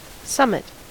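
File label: "summit", US English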